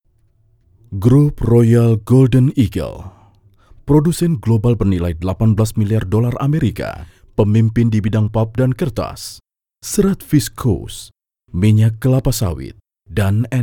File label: Male Indonesia